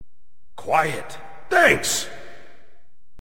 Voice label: quiet thanks